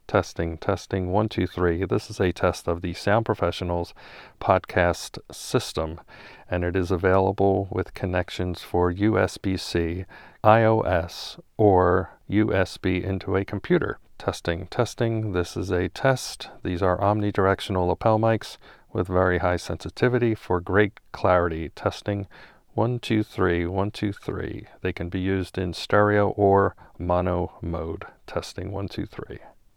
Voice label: omni lapel mics podcast system